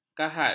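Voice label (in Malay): "kahat", Malay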